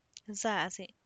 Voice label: zaze, Common Purslane, Santiago Matatlán Zapotec; verdolagas